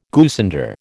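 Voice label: "goosander", American English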